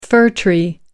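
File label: "fir tree", British English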